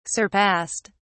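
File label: "surpassed", British English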